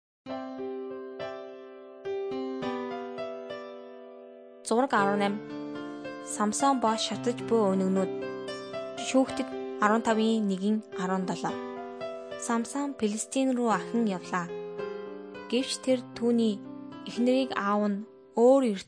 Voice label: mongolian